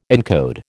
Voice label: "encode", American

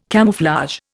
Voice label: "camouflage", Indian

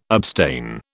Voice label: "abstain", British

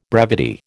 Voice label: "brevity", American

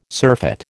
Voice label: "surfeit", American

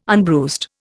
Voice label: "unbruised", Indian

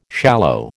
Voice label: "shallow", American

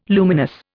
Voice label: "luminous", Indian

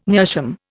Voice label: "meerschaum", Indian

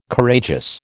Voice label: "Courageous", American

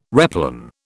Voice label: "replan", British

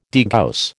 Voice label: "degauss", American

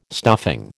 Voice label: "stuffing", American

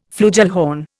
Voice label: "flugelhorn", Indian

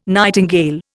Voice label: "nightingale", Indian

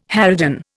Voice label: "harridan", Indian

